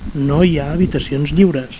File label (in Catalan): "no hi ha", Catalan